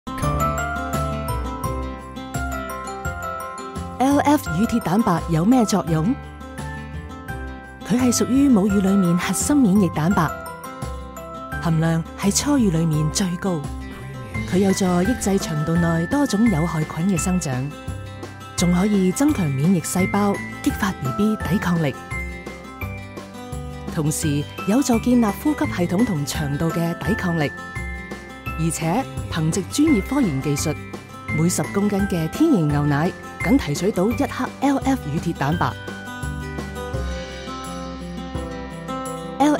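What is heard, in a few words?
woman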